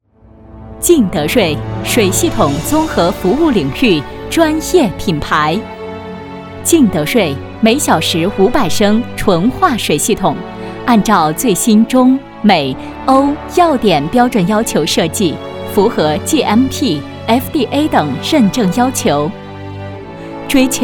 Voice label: 女 专题 产品解说词 大气

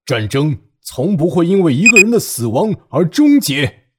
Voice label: 男 角色 屠龙者

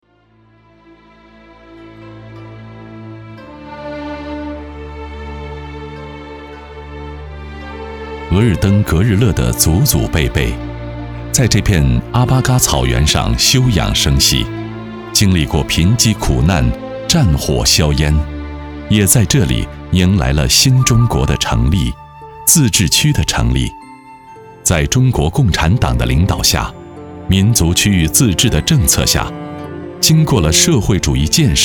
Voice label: 男, 人物纪录片; 牧民

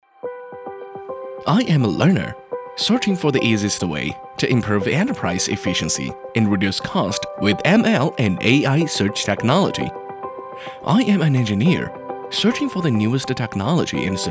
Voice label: 英男 英语 年轻 解说

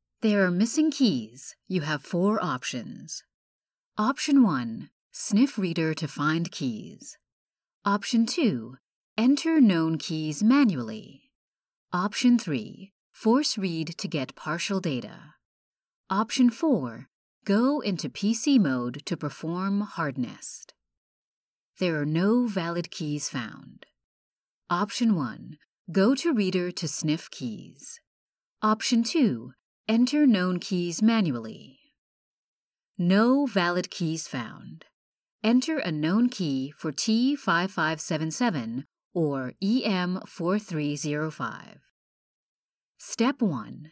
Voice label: 英文语音提示列表

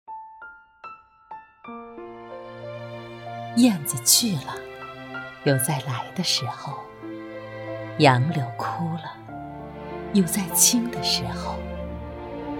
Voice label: 女 朗诵 温情自然; 朱自清; 匆匆

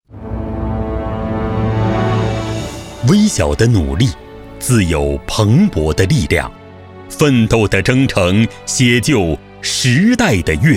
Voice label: 男 企业宣传片 科技; 迈吉易威

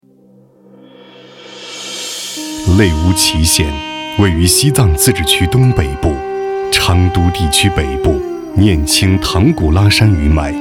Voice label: 男 纪录片 娓娓道来; 非遗; 藏纸

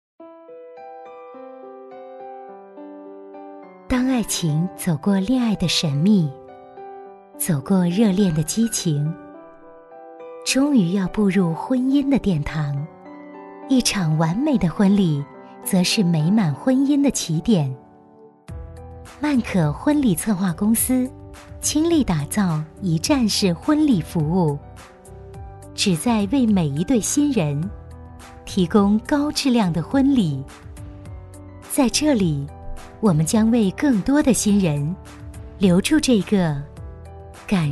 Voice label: 女 广告 婚礼策划 唯美; 曼可婚礼策划公司

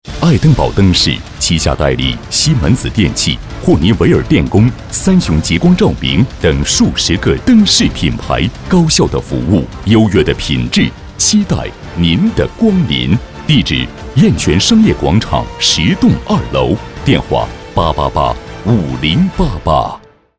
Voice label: 男 广告 灯饰; 爱灯堡灯饰

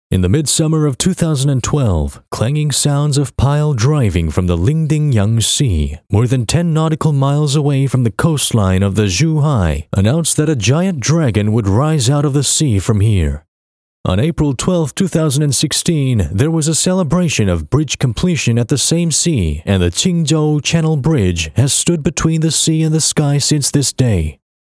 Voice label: 短视频配音稿英文; 港珠澳

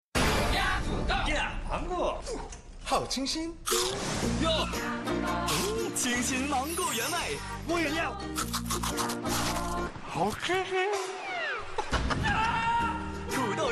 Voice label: 男, 特别年轻; 好丽友

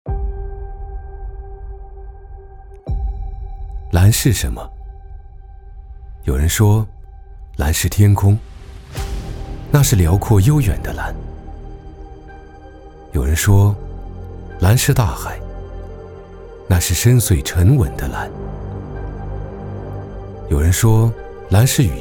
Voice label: 男 高端 质感 自然; 蓝是什么

